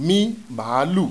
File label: "M MAALU", Yoruba; ALPHABET RHYME IN BITS